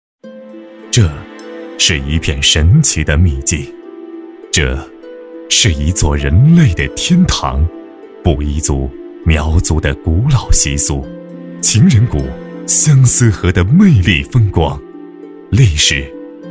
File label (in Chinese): 专题 银行 大气, 男国; 乌当农商行